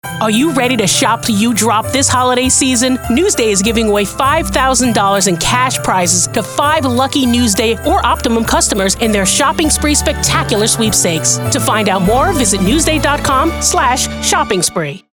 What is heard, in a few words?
FEMALE; NEWSDAY